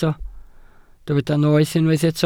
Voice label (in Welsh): "noeth" Welsh